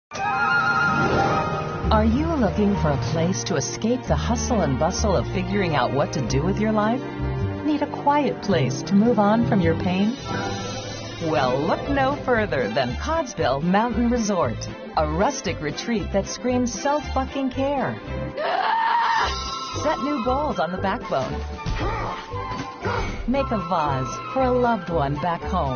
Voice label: Promos; Doom Patrol - HBO